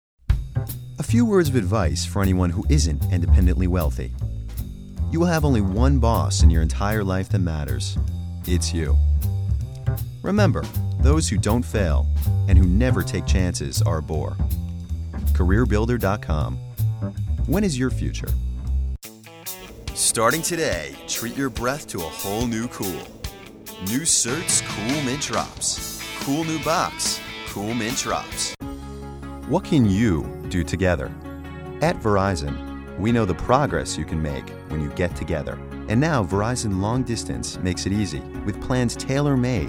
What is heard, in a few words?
Commercial Voice Over